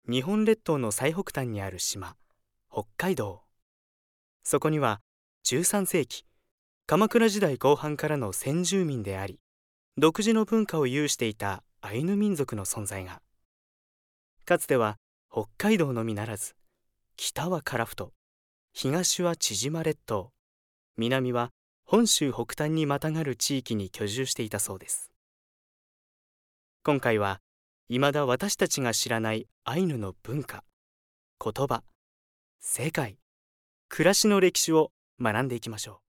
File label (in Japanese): ナレーション